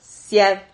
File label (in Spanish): "siad", silabas